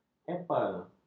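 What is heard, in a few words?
"epal", Malay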